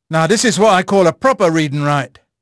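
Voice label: English male; Pirates Of The Caribbean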